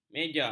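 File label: "mejar", Malay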